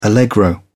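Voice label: "allegro", British English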